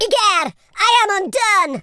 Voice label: bye bye, speech, Super Hero